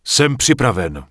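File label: Human Male Official NPC Vendor, Czech; wowpreklad; czwow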